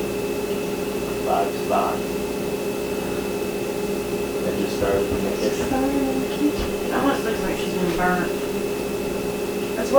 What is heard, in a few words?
Kitchen Unexplained Female Voice (Started in the kitchen) AMPLIFIED